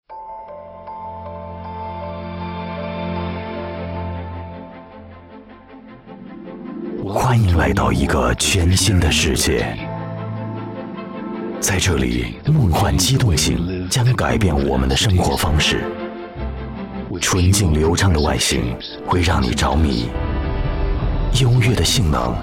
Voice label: 男 汽车广告, 国语男声配音; BMW i3概念车

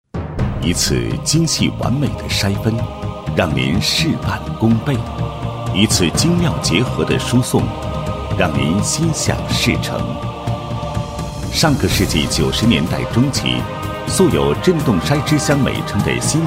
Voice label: 男 公司企业, 机械制造公司宣传片; 人从众

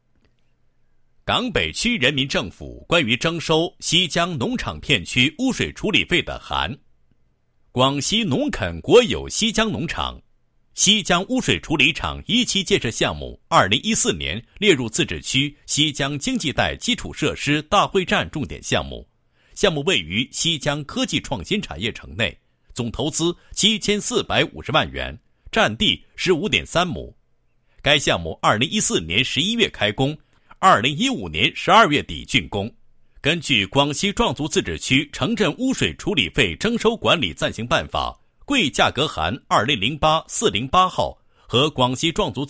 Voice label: 男 信函专题 污水处理费的函

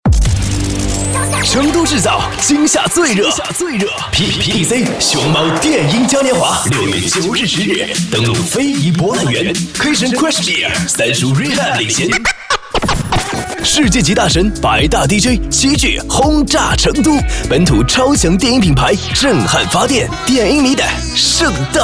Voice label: 男 广告炸燃活力 音乐广播; 海口音乐广播

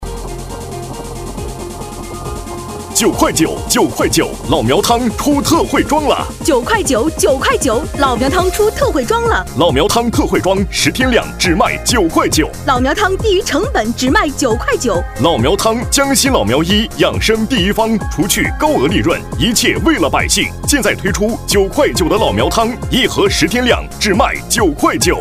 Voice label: 男80 直销, 国语男声配音; 老苗汤样音; 男80央视农广

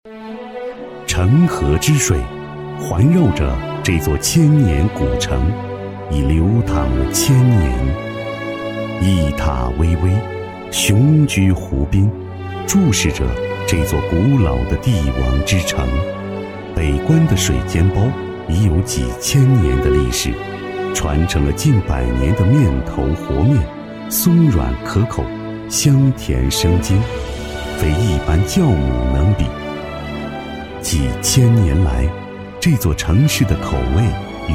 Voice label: 男 美食介绍 地方美食介绍; 寻味老北关

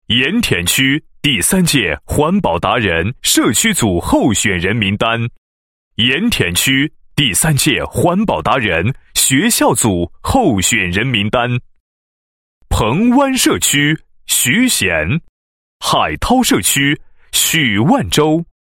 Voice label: 男 颁奖, 配音; 盐田区第三届环保达人颁奖

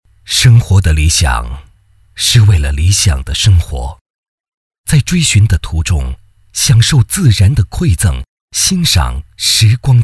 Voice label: 男 品牌广告, 国语男声配音 卫视; 香巴拉雅

